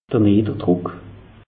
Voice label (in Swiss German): "nidderdruck", alsace; meteo